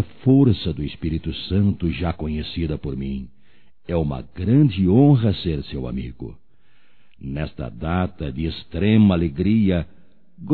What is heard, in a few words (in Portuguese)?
EVANG ANIVERSÁRIO DE AMIGA - M; Telemensagens 2017; Aniversarios